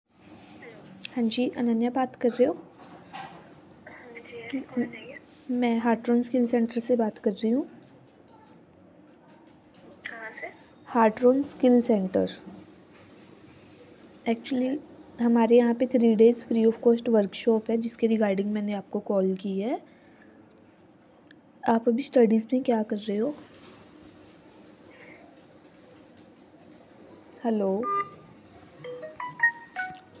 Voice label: call recording